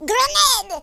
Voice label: grenade, French Lover; speech